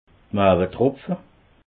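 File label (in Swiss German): "maawetropfe", Alsatian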